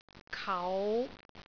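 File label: "kau5", Cantonese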